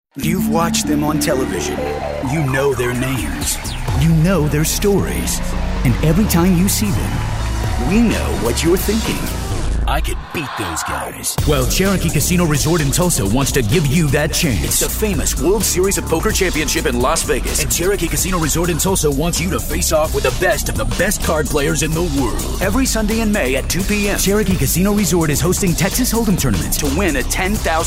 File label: Hard Sell